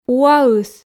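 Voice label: "пуаыс" Komi, female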